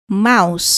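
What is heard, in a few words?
"mouse", English word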